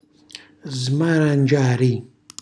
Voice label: "smarangiari", Calabrese; cucina calabrese